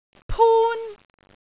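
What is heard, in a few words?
"pun1", Cantonese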